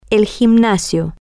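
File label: the exercise room, Spanish, female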